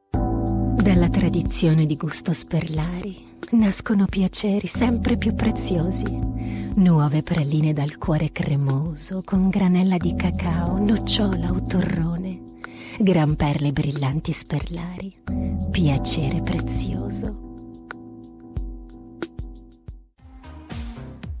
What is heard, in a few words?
Italian voice